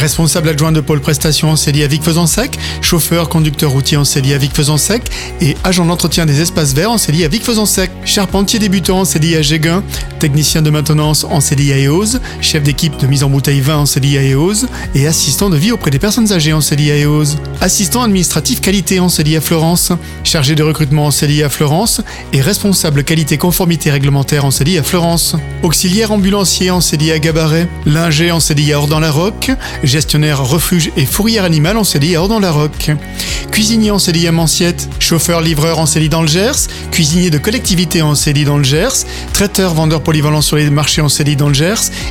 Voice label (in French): PUB FRANCE TRAVAIL CDI